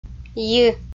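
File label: "йи" Komi, female; audio dict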